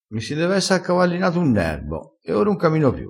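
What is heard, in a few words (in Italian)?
"camina", vocabolario dialettale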